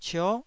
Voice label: "cio9", Taiwanese